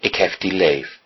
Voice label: "i love you", Low Saxon